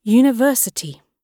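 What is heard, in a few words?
"university", British English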